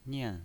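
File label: "nian", pinyin voice, Mandarin Chinese, fourth tone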